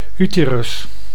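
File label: "uterus", Dutch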